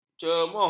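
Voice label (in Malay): "cemuh", Malay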